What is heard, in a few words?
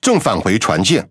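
Chinese (Taiwan) Speech Pilots Bomber Land; World Of Warships; ZH TW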